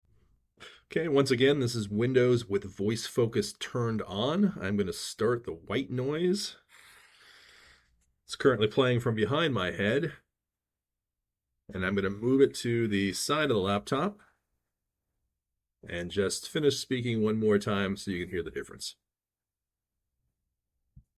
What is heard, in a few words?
Voice focus on white noise; Windows 11